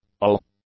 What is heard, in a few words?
"all", English; adjectives